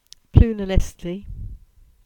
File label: Cornish; Gulval